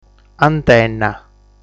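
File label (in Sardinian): "Antenna", Sardinian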